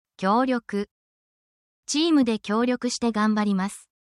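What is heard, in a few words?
Japanese word, essential Japanese phrases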